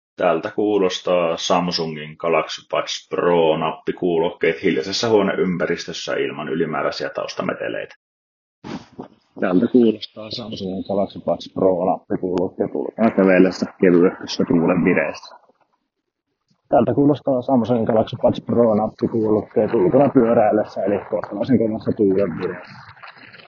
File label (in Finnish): mikrofonin aaniesimerkki; Samsung Galaxy Buds Pro